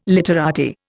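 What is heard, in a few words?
"literati", Indian English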